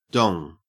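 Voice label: "dong", Australian English; pronunciations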